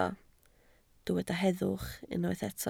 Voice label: "heddwch", Welsh

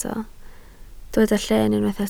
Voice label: "lleen", Welsh

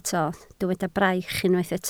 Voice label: "braich", Welsh

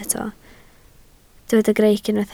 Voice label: "greic", Welsh

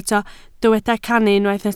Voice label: "cannu", Welsh

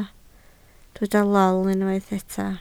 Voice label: "lol", Welsh

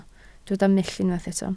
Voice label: "mull", Welsh

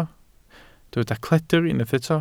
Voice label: "cledr", Welsh

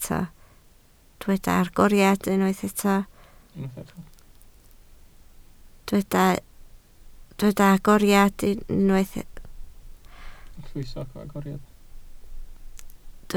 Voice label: "agoriadau", Welsh

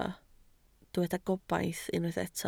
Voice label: "gobaith", Welsh

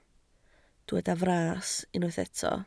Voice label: "fras", Welsh

